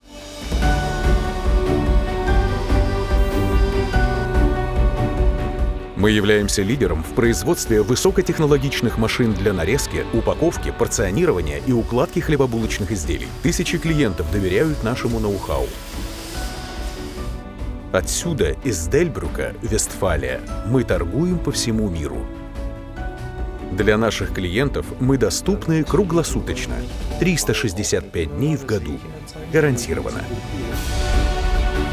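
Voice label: Russian, male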